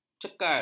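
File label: "cekal", Malay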